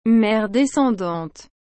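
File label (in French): "mer descendante", French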